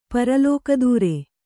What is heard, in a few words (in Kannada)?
"ಪರಲೋಕದೂರ", Kannada